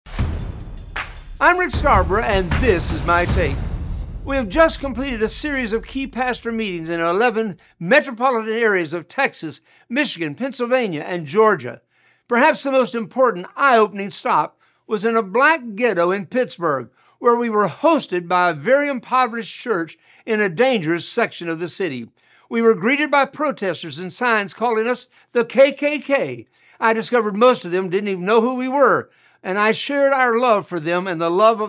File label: radio spot; Pastor Meetings